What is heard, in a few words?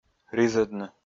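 "ризӧдны", Komi, male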